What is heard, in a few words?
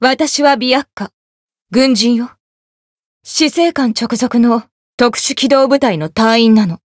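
Voice Japanese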